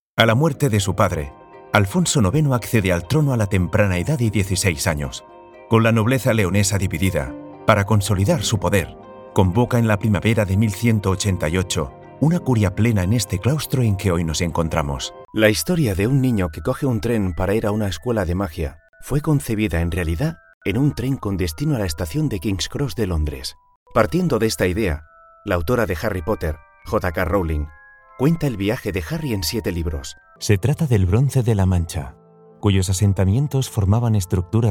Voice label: spanish documentaries; Spanish and Catalan professional voice-over artist